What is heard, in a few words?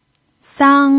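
"sang" Mandarin Chinese, first tone, pinyin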